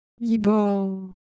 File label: Ewe, ideophones; Color Visual